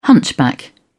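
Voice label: "hunchback", English, British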